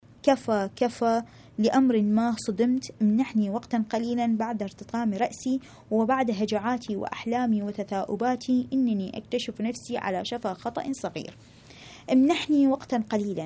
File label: arabic